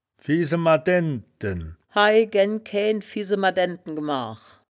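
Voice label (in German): "fisema daendten", wittlicher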